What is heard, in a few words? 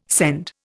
"scend", Indian